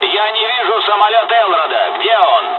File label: dialogs russian; War Thunder